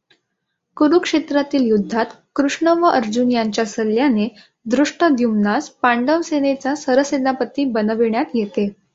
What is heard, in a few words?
Marathi; ai4bharat indicwave2vec models